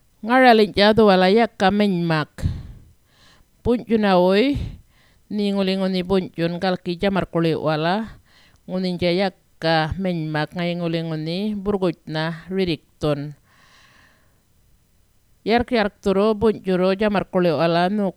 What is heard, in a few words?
yolgnu matha smoking poster